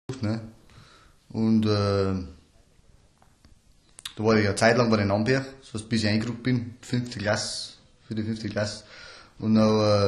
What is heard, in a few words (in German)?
Nordbairisch Amberg mit Erwaehnung; Oberdeutsch